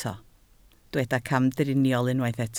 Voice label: "camdriniol", Welsh